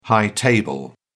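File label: "high table", English, British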